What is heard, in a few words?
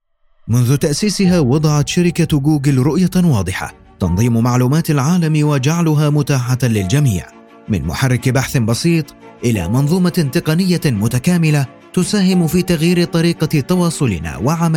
corporate